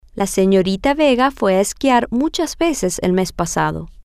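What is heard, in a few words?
she went skiing many times last month, Spanish, female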